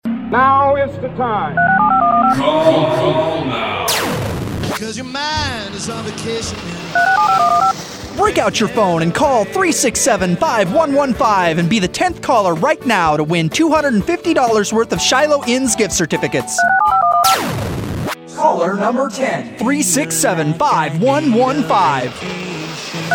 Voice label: commercial giveaway; sales